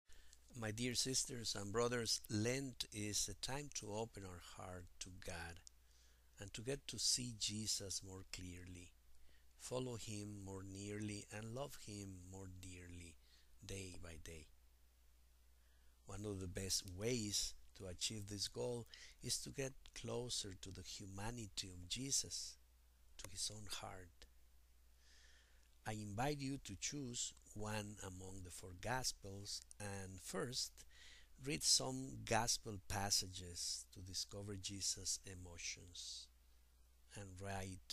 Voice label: Audio English